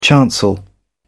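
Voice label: "chancel", British English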